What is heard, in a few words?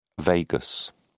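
"vagus", British English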